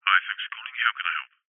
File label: human call operator male uk says thanks for calling us how can i help